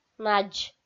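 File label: "надз", Komi, female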